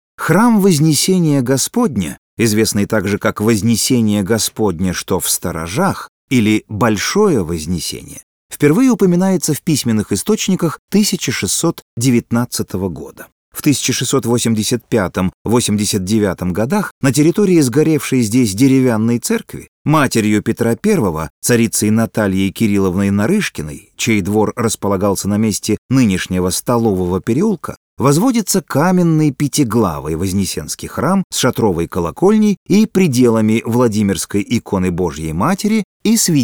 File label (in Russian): Hram Vozneseniya audiogid; профессиональных дикторов для аудиогида